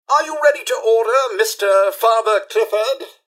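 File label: hello, robot; rivetcity; mrhandy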